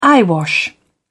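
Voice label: "eyewash", British English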